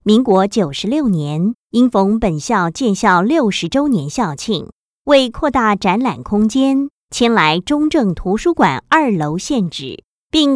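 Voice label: Taiwanese Mandarin female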